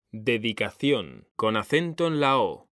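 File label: "DEDICACIÓN", Spanish; mekanta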